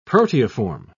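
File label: "proteiform", British English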